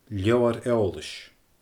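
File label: "leabhar-eoolais", Scottish Gaelic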